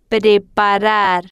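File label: "preparar", Spanish, female, slow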